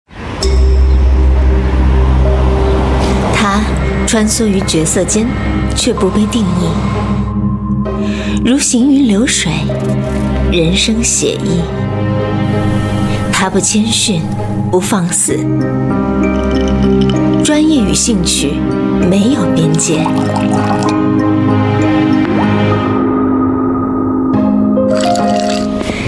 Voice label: chinese voice over female commercial

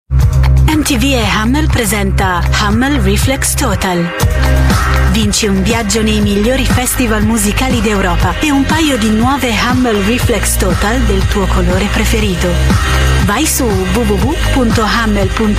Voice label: italian voice over female commercial

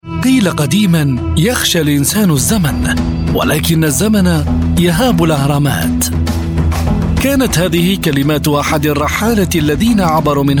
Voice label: arabic voice over male documentary

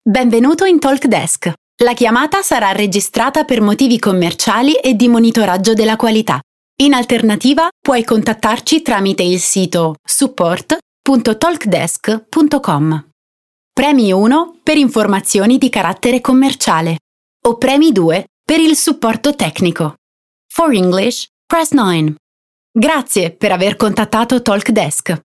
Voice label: italian voice over female ivr

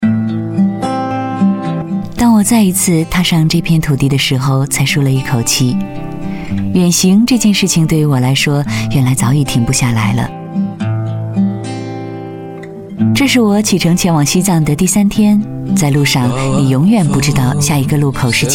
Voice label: chinese voice over female narrator

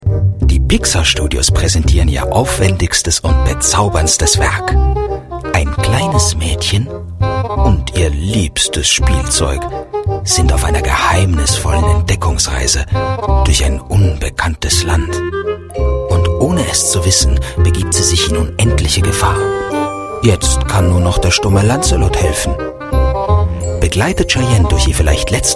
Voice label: german voice over male commercial

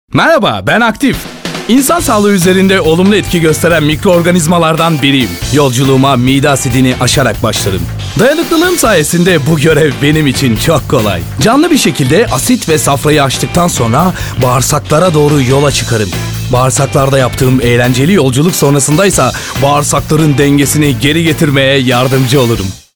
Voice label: elearning; probiyotik aktif

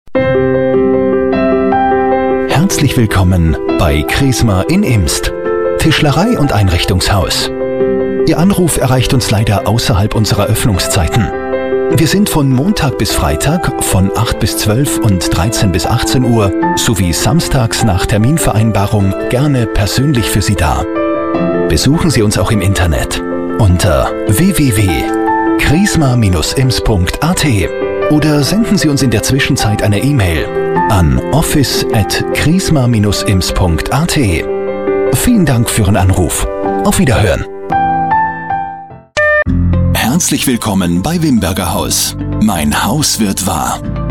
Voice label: german voice over male ivr

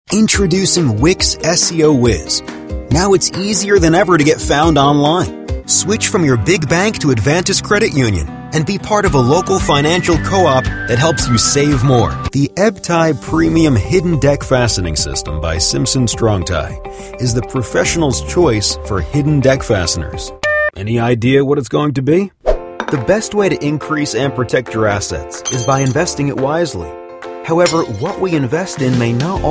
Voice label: english american voice over male

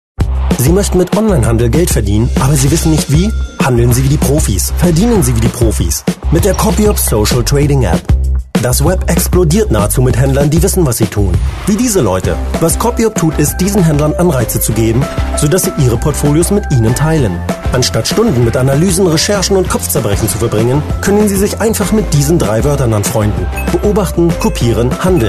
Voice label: german voice over male narrator

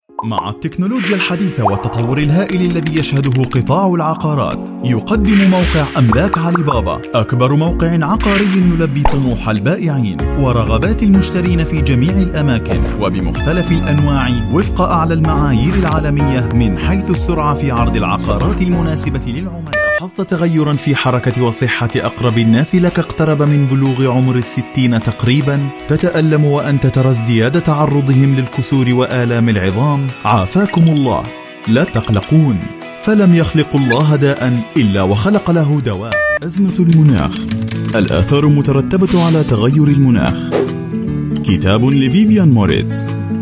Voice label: arabic voice over male commercial

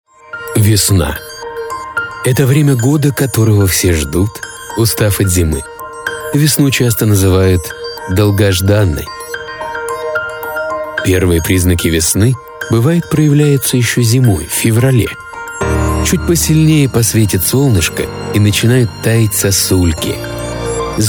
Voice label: russian voice over male documentary

